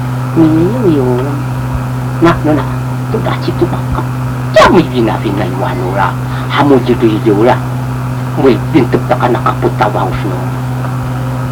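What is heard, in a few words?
Yami language, male, Iranmilek; nimapo do kalilian a tao; She was very loyal to her husband. She went everyday into the mountains to work.; 【他呢，是個非常忠於先生的人。一如往常一樣，每天都上山去工作】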